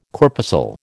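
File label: "corpuscle", American English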